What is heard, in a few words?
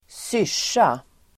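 "syrsa", Swedish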